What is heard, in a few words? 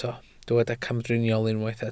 "camdriniol", Welsh